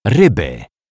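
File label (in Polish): "ryby", Polish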